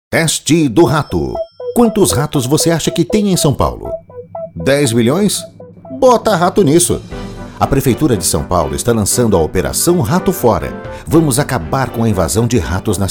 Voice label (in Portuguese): spot; rato